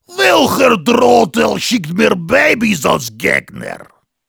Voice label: Heavy taunts, de

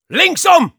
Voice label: head left, de; Soldier; "Links um!"

